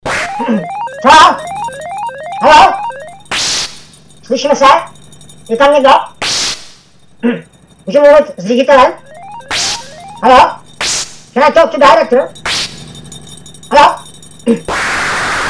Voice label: radiospot